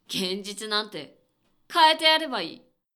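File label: male; 現実なんて、変えてやればいい。; クール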